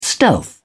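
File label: "stealth", British English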